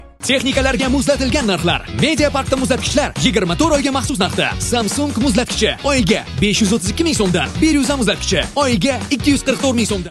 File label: Reklamnyi rolik